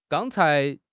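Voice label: "gang1 cai2", Chinese; HSK; HSK3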